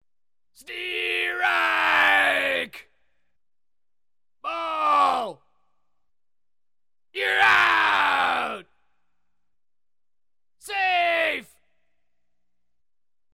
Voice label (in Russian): bejsbol komandi sudi angl.